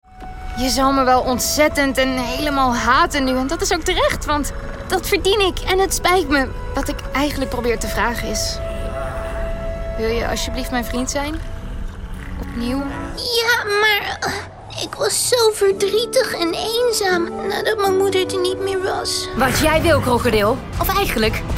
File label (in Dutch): Character NL